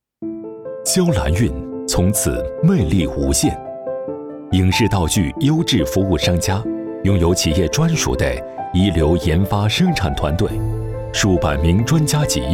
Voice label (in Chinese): 男 品牌广告